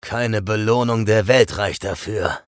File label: vo chatter negative; eisen; respawn